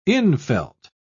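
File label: "infelt", British English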